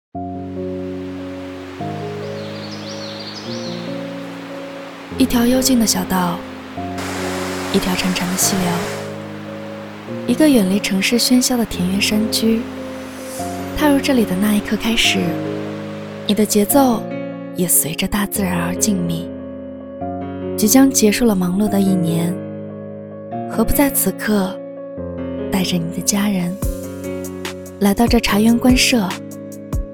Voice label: 女 素人 文旅

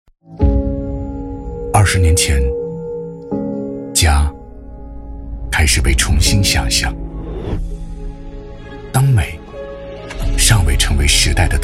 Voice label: 男 广告 先导片 逼格 高端; 20周年先导片; 卡萨帝20周年先导片

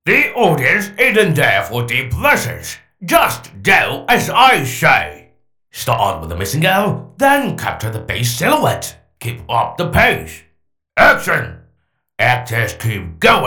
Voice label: 男 英文角色

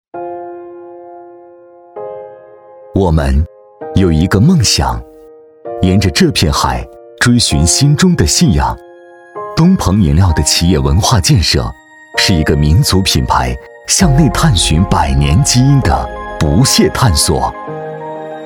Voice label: 男 企业 温暖大气 积极透亮 蓬勃向上; 东鹏饮料

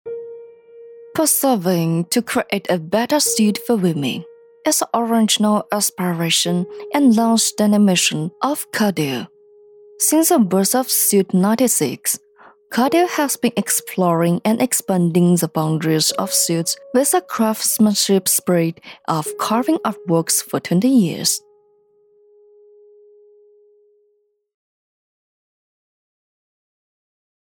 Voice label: 女 英 高端品牌宣传

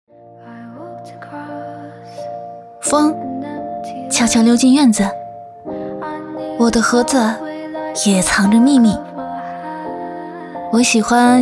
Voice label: 女 TVC

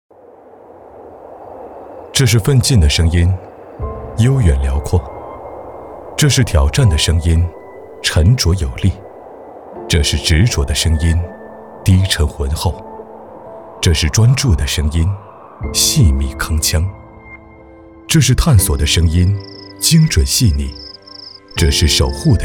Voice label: 男692 广告; 青春之声

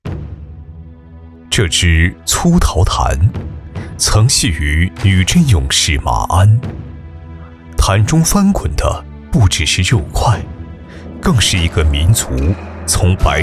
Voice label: 男, 专题 讲述类

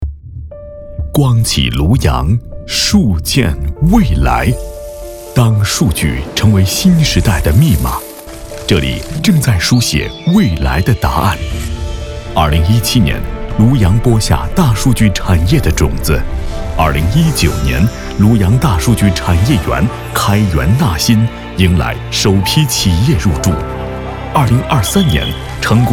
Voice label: 男 宣传片

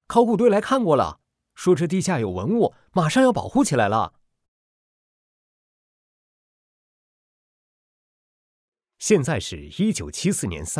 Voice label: 男 角色类

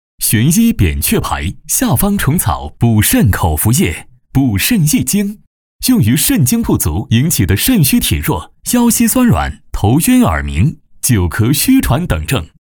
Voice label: 广告; 玄医扁鹊牌

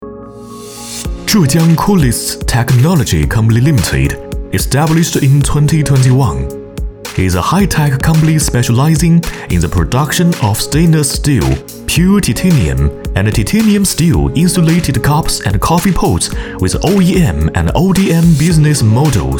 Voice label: 男 英文

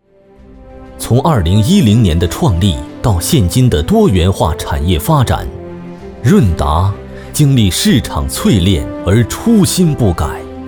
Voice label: 男 宣传片; 润达

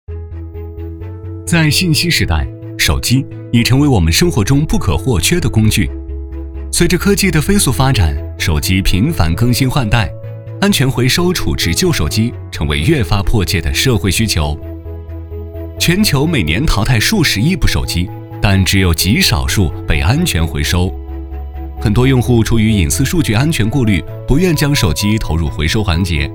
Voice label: 男349 广告 手机回收

